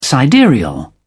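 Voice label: "sidereal", British English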